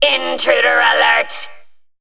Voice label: Male Mech Play